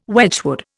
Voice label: "Wedgwood", Indian

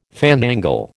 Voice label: "fandangle", American

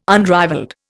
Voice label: "unrivalled", Indian

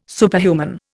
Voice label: "Superhuman", Indian

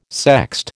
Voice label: "sext", American

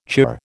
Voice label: "chirr", American

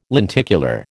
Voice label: "lenticular", American

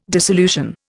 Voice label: "dissolution", Indian

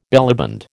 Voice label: "bellyband", American

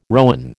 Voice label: "rowan", American

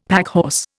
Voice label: "packhorse", Indian; Dictionary

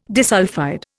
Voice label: "disulphide", Indian

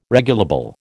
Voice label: "regulable", American

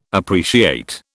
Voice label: "appreciate", British

